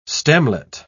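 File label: "stemlet", British English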